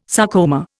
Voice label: "sarcoma", Indian